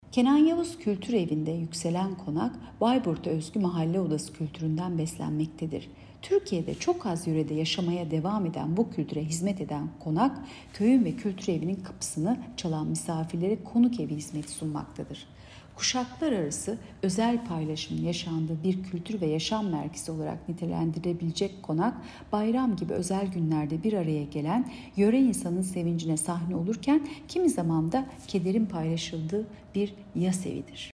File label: turkce